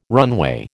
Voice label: "runway", American